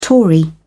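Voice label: "Tory", British English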